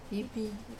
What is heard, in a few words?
"pipi", money, wamesa